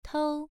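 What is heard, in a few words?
"tou" Mandarin Chinese, first tone, pronunciation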